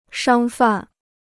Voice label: "商贩", Chinese